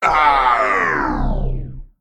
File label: Male Mech Death; en